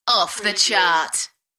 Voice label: UK female; beanstalk-audio